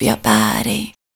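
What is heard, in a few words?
vocal female, Dance Vocals, spoken words; Best Service ProSamples vol.12; AKAI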